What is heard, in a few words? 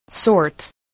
"sort", English voice